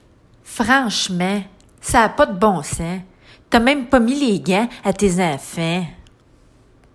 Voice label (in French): accent de la Mauricie AN